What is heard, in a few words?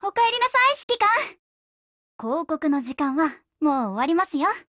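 hello, Japanese; 人物：少女前线:SCR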